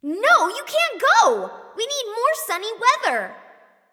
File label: Taunt Directed; Pool Party Jing Wei; Amaterasu